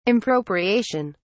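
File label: "impropriation", British English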